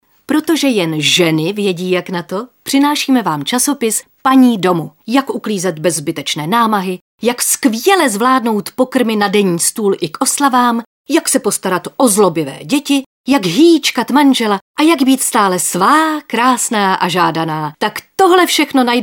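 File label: female Czech commercial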